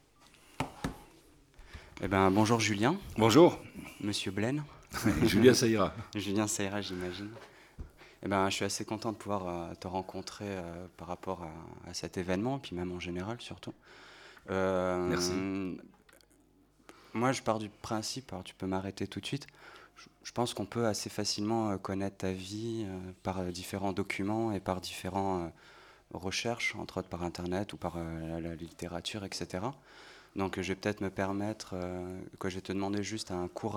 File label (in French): entrevue; Julien Blaine; SignalsurBruit